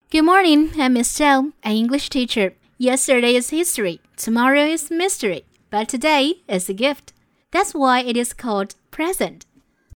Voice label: "英语老师", 女, 英语

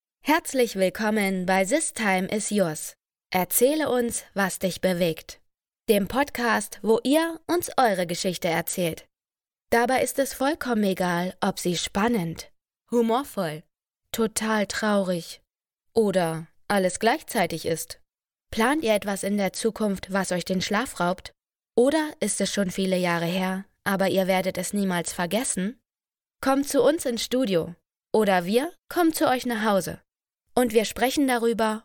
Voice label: 德语女声; 外籍

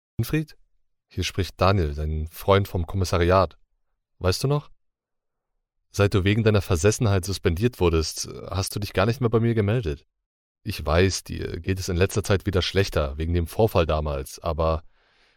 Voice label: 德语深沉男声; 外籍

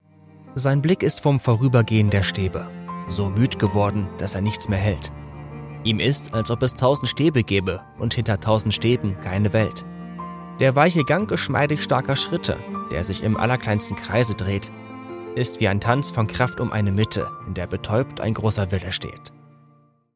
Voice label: 德语; 外籍; GERMAN; 浑厚配音; 德语外籍合集列表

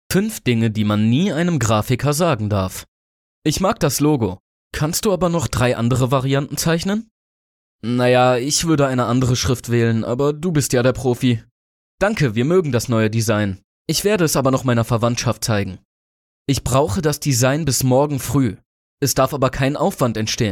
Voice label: 德语男声; 外籍

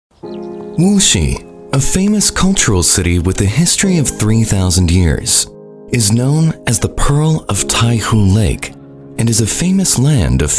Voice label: 外籍美式男声温暖感觉; 134外籍美式男声温暖感觉

